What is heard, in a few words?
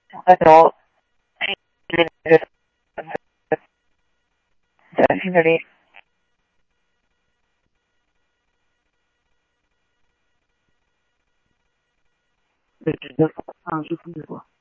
Police, scanner audio trunk